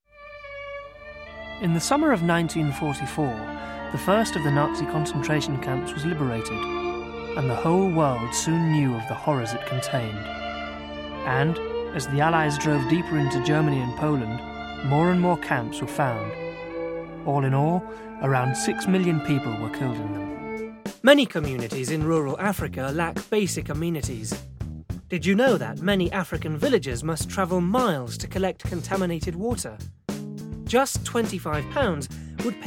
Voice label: male english documentary and narration compilation